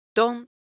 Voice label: Japanese, Ideo Phones